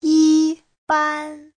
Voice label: "yi1ban1", Chinese; Giáo trình Hán ngữ